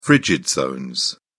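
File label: "frigid zone", British English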